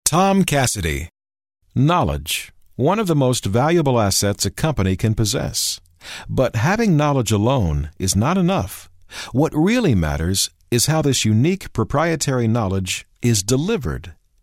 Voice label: CORPORATE